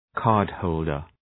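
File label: "cardholder", English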